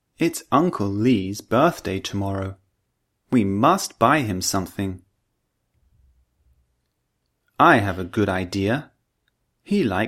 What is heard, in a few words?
Vowel Sounds, British English; Udemy - Perfect English Pronunciation British English